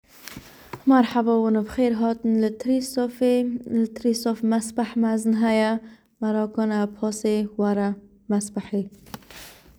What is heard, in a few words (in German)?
kurdisch; Troisdorf schwimmbad